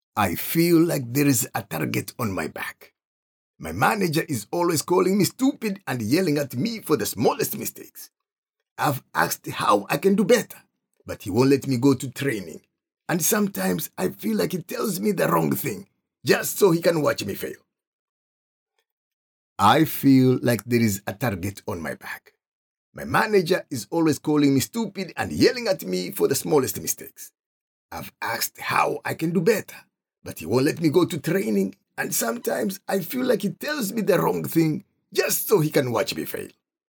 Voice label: HARASSMENT PREVENTION VIDEO MALE MIDDLE EASTERN